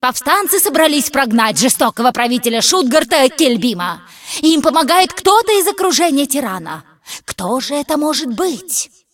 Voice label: cinematic voice, ru; lineage2